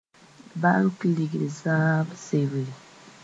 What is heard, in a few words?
"bãnk ligd zãab sebre", Mooré